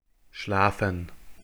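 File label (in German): "schlafen", German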